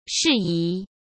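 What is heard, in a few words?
"事宜", Mandarin Chinese; chinese-flashcards; hsk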